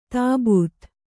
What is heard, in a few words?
"ತಬ್ಬೇತ", Kannada